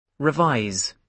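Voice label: "revise" English, British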